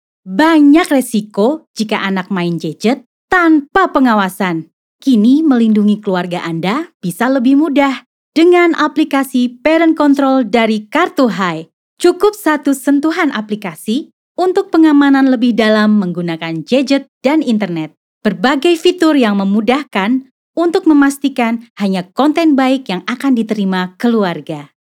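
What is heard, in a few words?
CASUAL Indonesian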